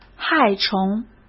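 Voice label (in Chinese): "害虫", Mandarin Chinese; chinese flashcards; hsk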